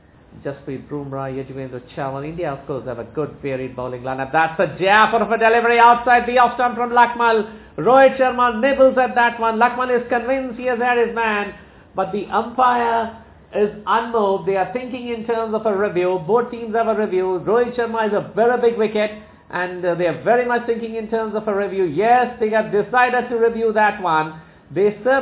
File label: commentary english